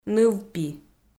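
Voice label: "ныв-пи", Komi, female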